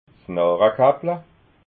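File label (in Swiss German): "narrakappla", Alsatian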